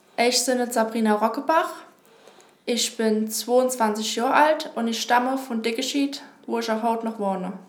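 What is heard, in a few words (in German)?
vorstellung, Hunsrück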